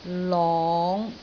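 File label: "long5", Cantonese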